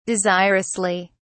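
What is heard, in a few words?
"desirously", British English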